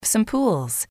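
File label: "some pools", English, female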